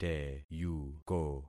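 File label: "teyuko", concatenated syllables; Affixing experiment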